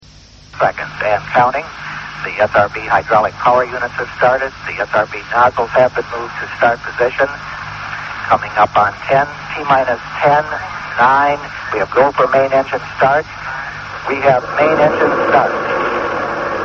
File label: columbia launch ground reporting; sts-2